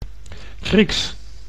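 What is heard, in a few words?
"Grieks", Dutch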